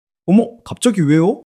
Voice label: surprise

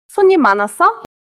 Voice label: neutral, monologue

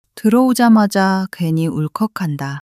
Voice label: sadness, monologue

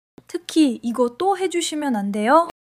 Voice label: excitement; monologue

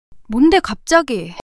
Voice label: fluster; monologue

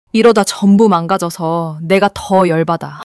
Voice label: anger; monologue

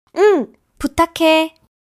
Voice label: excitement; monologue

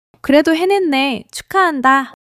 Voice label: joy; monologue

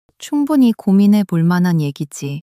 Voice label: sadness, monologue

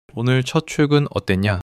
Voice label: neutral

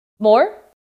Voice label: neutral, monologue

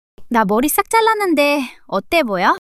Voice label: shy; monologue

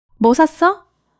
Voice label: excitement, monologue

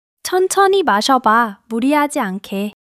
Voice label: joy

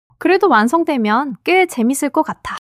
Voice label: joy; monologue

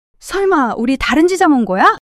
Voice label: surprise, monologue

